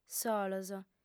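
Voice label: "solos", female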